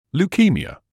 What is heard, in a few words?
"leukaemia", English